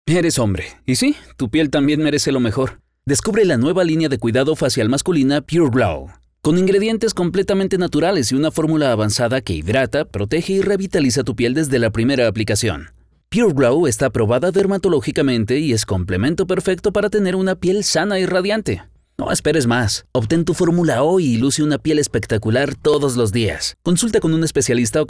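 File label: Spanish Americas, male, voice over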